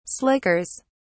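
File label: "slickers", British English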